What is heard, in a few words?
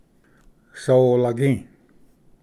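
"solagi", Cherokee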